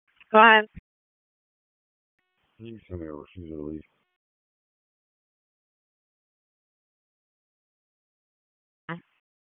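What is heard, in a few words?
Raleigh Police Southeast District Dispatch, p25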